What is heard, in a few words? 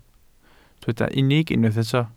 "unig", welsh